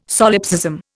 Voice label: "solipsism", Indian

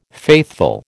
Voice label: "faithful", American

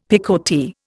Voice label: "picotee", Indian; Dictionary

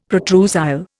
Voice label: "protrusile", Indian; Dictionary

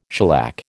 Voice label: "shellac", American

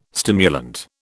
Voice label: "stimulant", British

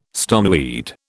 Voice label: "stoneweed", British

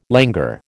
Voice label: "languor", American

